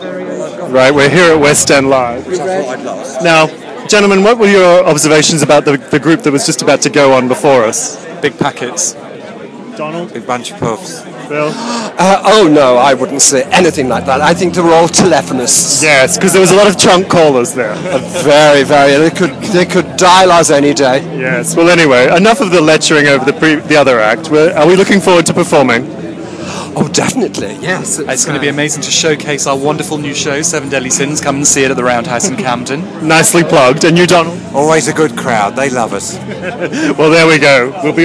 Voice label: waiting backstage idle chorus chatter; at west end live